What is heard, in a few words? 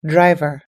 "driver", US English